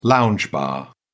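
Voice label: "lounge bar", British English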